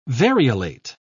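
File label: "variolate", British English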